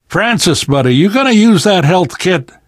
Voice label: player suggest health specific, left4dead survivor voice namvet